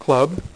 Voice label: "CLUB", Dealer's Speech; PowerPOKER Demo

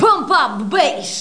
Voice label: pump up the bass female; Terra Sound Library

